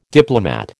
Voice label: "diplomat", American